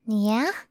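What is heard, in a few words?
"yeah", English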